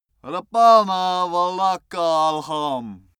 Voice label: male pray arabian

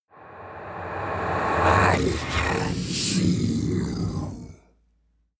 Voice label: demon dark voice reverse i can see you

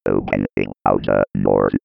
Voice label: 90s computer voice

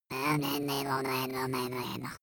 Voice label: goblin mumble breathy

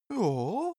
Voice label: cartoon male agree

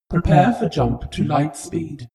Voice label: shuttle female status report

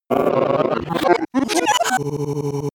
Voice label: glitch talk fx

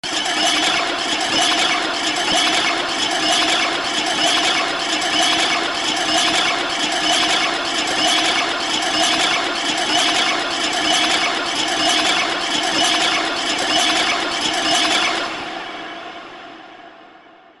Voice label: alien chat

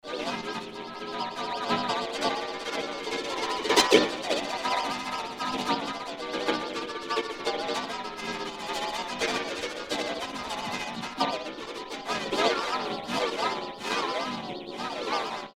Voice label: alien talks

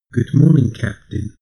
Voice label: male system status

